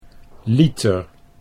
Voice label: "liter", Dutch